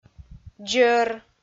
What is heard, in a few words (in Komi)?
"дзӧр", Komi